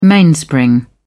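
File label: "mainspring", British English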